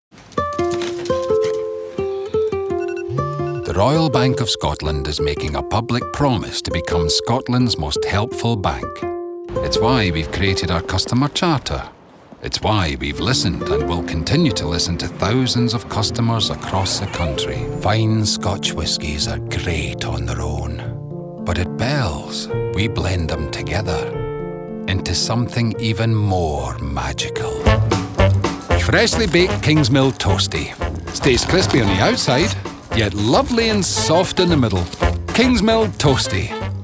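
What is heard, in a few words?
voice-over commercial montage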